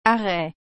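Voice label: "arret", French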